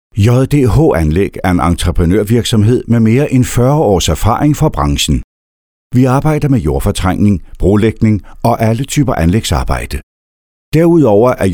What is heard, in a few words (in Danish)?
Virksomhedsfilm